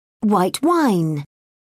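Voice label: "white wine", British English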